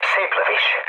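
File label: player killed enemy, mood low, Hungarian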